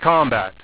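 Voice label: "combat", english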